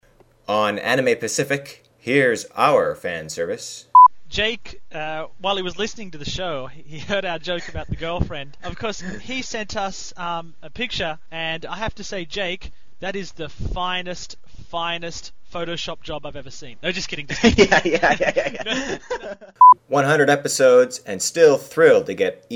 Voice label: Promo; Anime Pacific; emails